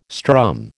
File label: "strum", American